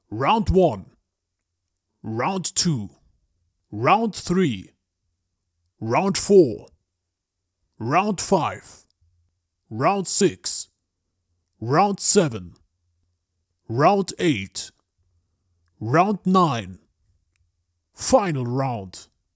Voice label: Round One To Ten Deep Voice